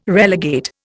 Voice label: "relegate", Indian